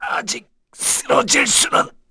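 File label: Vox Dead, Korean; Dimael; kingsraid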